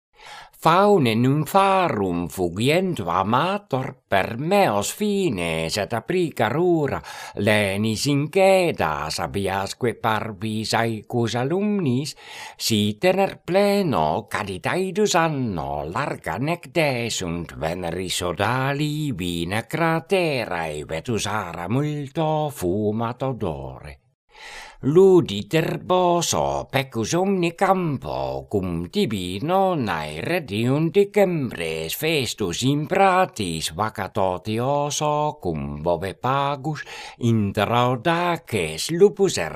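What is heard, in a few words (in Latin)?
Horatii carmina quae voce canora pronuntiat; Faune nympharum fugientum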